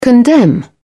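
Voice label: "condemn", British English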